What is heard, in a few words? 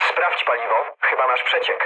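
tank damaged, mood low, Polish speech; War Thunder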